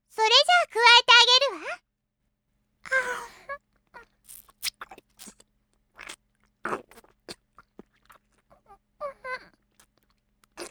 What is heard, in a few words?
sad